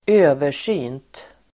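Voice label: "översynt", Swedish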